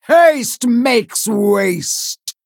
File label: Female Tortollan Attack